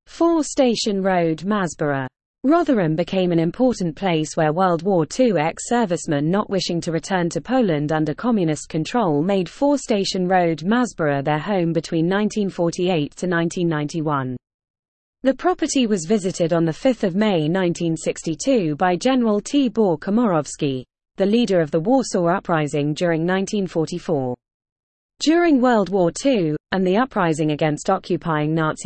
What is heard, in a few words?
Female; 4 Station Road Masborough